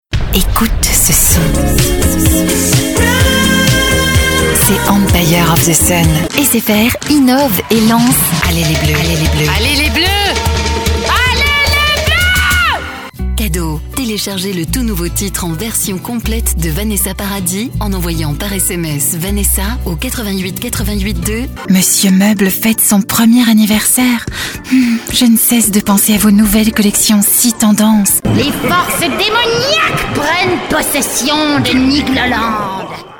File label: french commercial